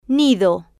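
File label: "nido", Spanish, female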